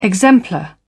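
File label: "exemplar", British English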